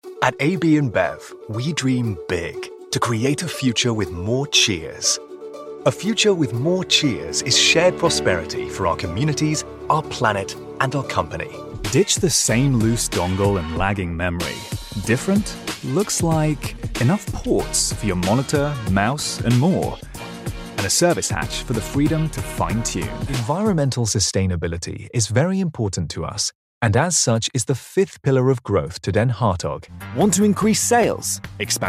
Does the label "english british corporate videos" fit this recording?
Yes